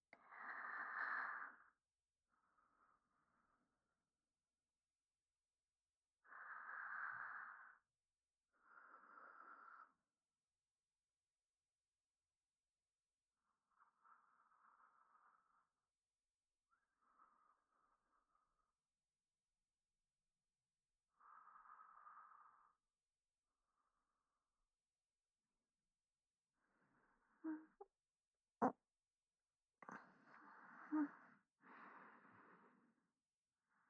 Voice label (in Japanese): ぐっすり眠れる安眠添い寝